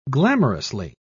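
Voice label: "glamorously", English, British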